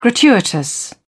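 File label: "gratuitous", British English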